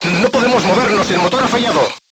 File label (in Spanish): voice message engine destroyed, spanish; crew tanks